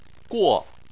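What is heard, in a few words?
"guo", Chinese, fourth tone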